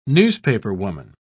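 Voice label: "newspaperwoman", British English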